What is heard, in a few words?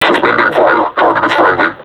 taunt, vo combine suppressor; Half Life Alyx